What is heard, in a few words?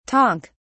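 "tonk", British English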